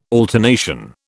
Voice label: "alternation", British